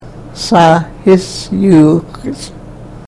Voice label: "sahishyuuxach", Karuk

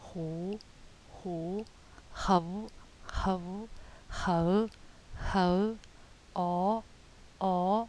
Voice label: vowels, yancheng

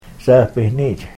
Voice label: "sahpihniich", karuk

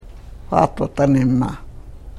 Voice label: karuk

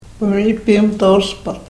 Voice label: karuk

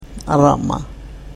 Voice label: "aramah", karuk

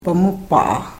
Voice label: "pamupaah", karuk

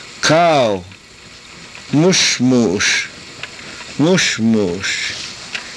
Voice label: "musmus", karuk

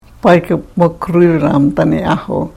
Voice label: karuk

